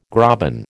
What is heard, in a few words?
"graben", American